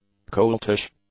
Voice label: "coltish", English; adjectives

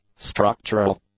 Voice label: "structural", English; adjectives

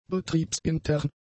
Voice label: "betriebsintern", German; adjectives

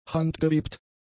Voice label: "handgewebt", German; adjectives

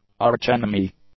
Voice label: "archenemy", English

